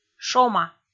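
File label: "шома", Komi, female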